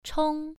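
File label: "chong" Mandarin, first tone, pronunciation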